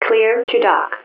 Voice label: intercom; ProjectMobius